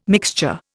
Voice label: "mixture", Indian